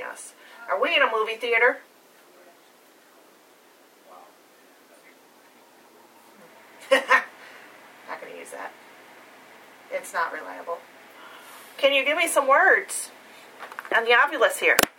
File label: are we in a movie theatre no female; Canton residential